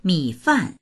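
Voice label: "mi3fan4", Mandarin Chinese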